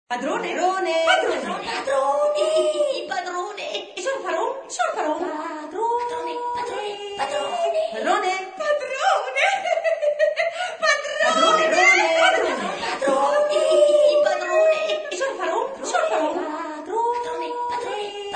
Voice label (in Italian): Voce fuori campo